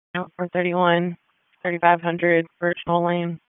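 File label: Police District Dispatch, p25; Raleigh Police Southeast District Disp; wake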